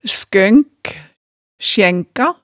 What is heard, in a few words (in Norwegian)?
"skønk", hemsedalsmaalet